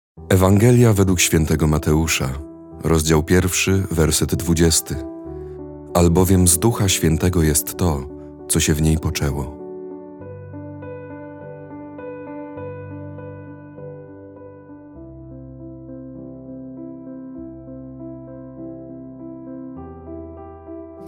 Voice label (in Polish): Piano Lektor; Narodzenie Jezusa; symfonia slowa